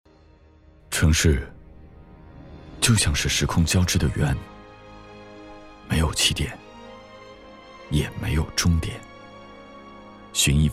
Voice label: 男 康养综合体宣传

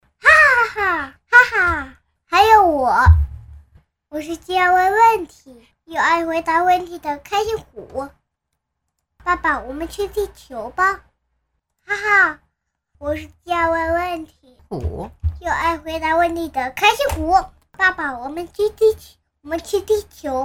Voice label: 5岁男童声 爸爸 我们去踢球吧

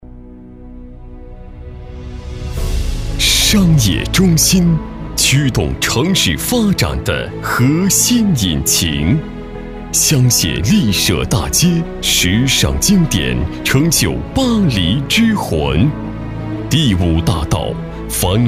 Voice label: 男 专题 情感浓郁; 苍洱小镇

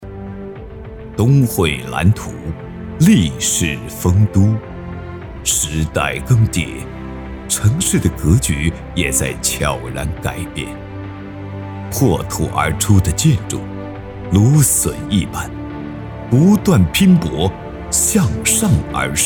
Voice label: 男 广告 房产; 丰都

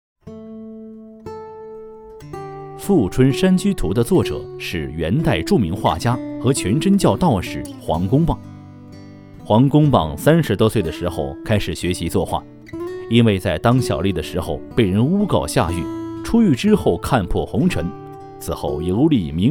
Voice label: 男 记录片 讲述风格; 富春山居图; 文献类案例

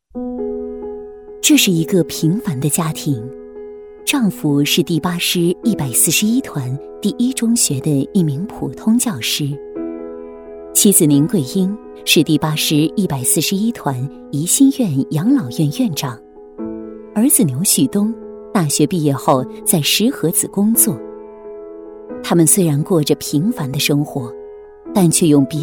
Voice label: 女 宣传片 温柔亲切

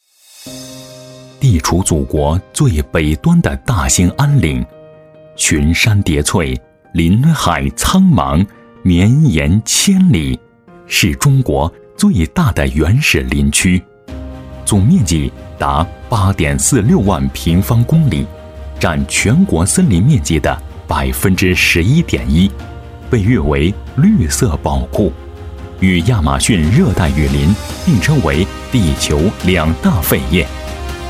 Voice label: 男 专题 支队简介

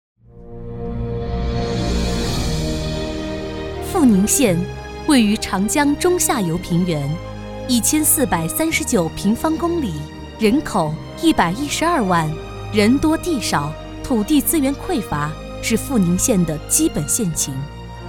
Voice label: 大气专题; 阜宁县介绍

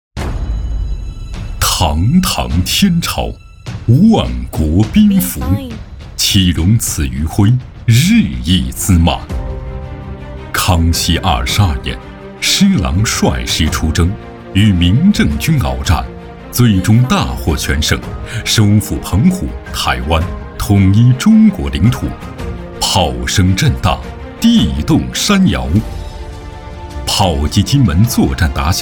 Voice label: 男 专题 军队 片 大气厚重; 晋-忠报国-强军有我

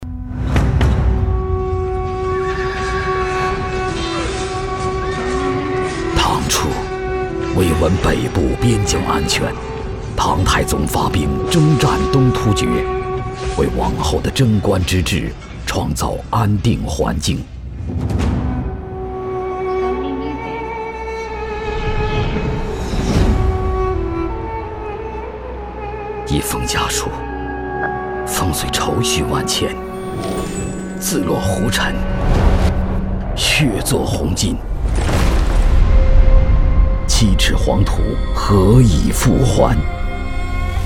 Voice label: 男, 汽车广告; 风起大唐; 比亚迪

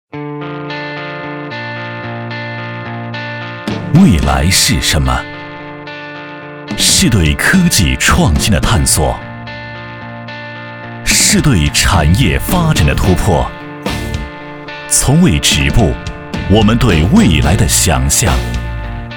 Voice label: 男,时尚; 生态沈北

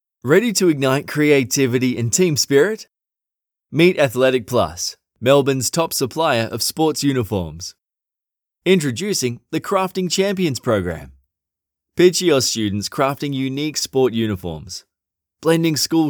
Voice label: 外籍英男 干音 年轻磁性

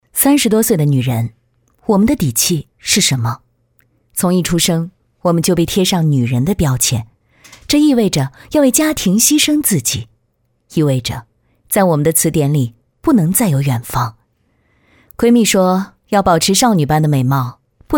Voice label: 女 硬酷炫拽走心

